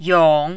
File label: "yong3", mandarin